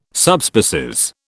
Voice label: "Subspecies", British

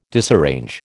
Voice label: "disarrange", American

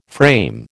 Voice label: "frame", American

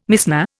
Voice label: "Mishnah", Indian

